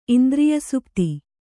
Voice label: "ಇಂದ್ರಿಯಸುಪ್ತಿ", kannada

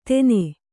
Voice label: "ತೆನೆ", Kannada

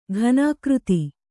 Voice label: "ಘನೀಕೃತ", Kannada

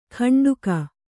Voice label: "ಕಂಡುಗ", kannada

